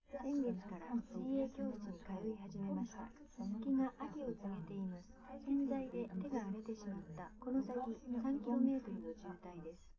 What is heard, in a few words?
female liverec; underdetermined; SiSEC11